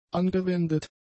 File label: "angewendet", German; adjectives